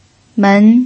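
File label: "men", pinyin, second tone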